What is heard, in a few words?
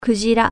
"whale", Japanese